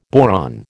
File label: "boron", American